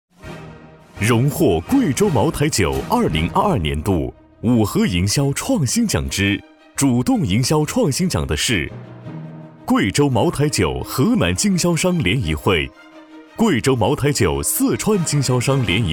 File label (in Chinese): 颁奖 大气